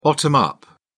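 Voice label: "bottom-up", British English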